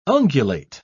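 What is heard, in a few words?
"ungulate", British English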